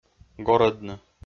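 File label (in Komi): "горӧдны", Komi, male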